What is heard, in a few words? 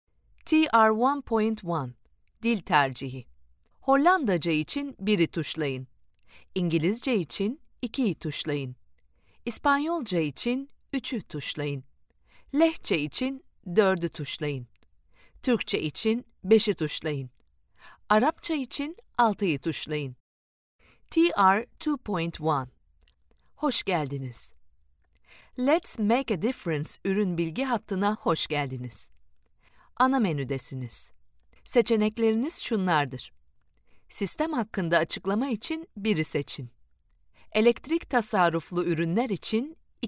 foreigner